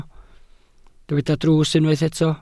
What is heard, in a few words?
"drws", Welsh